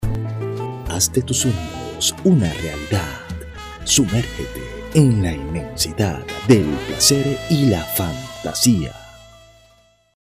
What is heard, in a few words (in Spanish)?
sensual